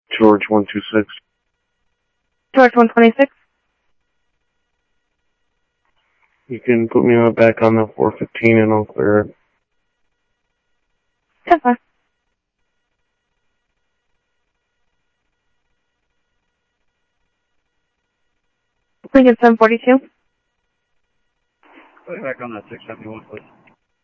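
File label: Sheriff East County, scanner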